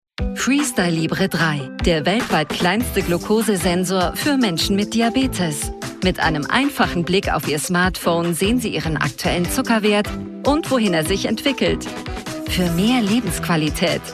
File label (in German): WERBUNG TV spot National freundlich nahbar; Abbott Laboratories; LOFT VOICES